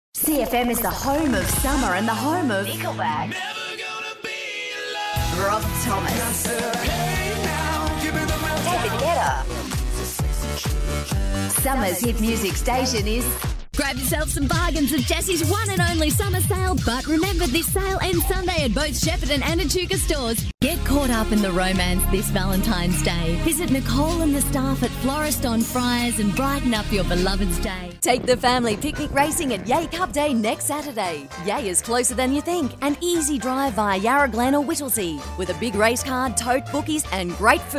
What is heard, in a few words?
Retail Imaging